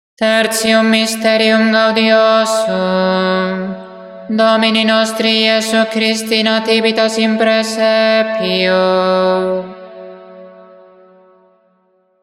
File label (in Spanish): Anuncio del tercer misterio gozoso; santo rosario; misterios gozosos